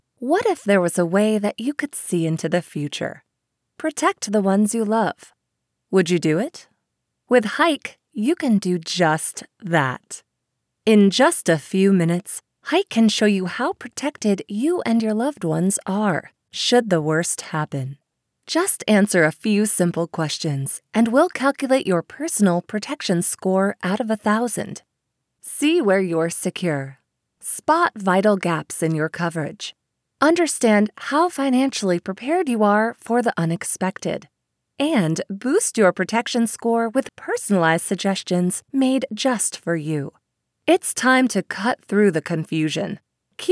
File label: Narration Sample; Hyke